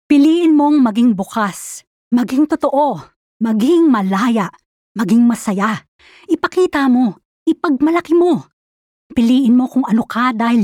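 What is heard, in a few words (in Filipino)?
Tagalog, conversational, inspiring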